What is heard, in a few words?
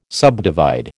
"subdivide", American